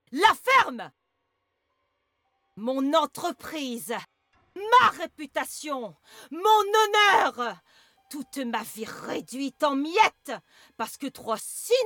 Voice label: Cartoon